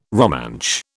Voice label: "Romansh", British